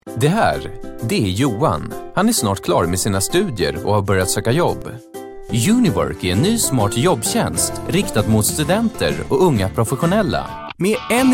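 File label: Swedish information, male; svensk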